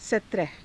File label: "satraha", hindi